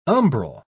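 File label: "umbral", British English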